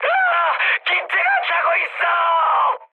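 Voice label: Korean on fire mood high; speech; War Thunder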